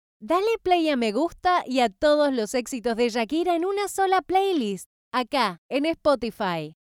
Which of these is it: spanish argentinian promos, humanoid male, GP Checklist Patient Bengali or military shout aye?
spanish argentinian promos